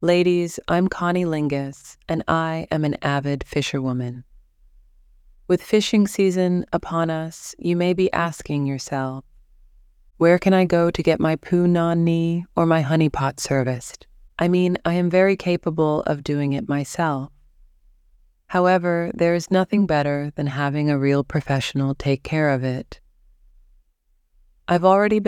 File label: female, fake sponsors; master bait